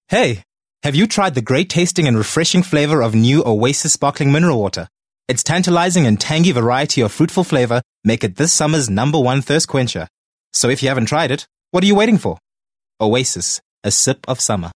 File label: English Conversational